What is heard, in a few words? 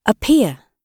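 "appear", British English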